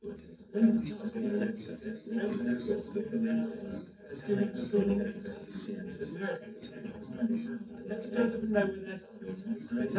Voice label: male; SiSEC10; underdetermined